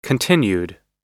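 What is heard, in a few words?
"continued", US English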